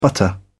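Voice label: "butter", English, British English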